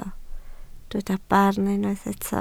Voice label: "barn", Welsh